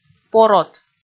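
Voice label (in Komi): "порот", Komi, female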